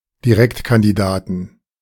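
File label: "Direktkandidaten", German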